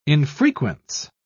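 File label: "infrequence", British English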